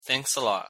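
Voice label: "thanks a lot", English pronunciation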